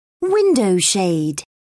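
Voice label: "window shade", British English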